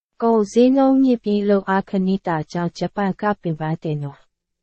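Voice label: Burmese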